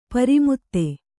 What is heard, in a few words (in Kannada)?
"ಪ್ರಮದೆ", Kannada